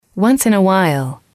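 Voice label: "once in a while", English, female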